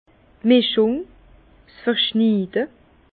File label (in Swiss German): "mischung", Bas Rhin; vin; assemblage